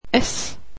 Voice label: swedish alphabet s